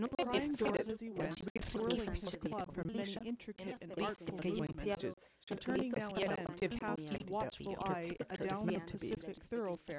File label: female; SASSEC07